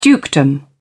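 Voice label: "dukedom", British English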